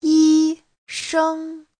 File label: "yi1 sheng1", Chinese